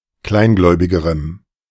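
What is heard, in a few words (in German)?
"kleingläubigerem", German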